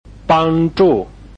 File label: "bang1zhu4", Chinese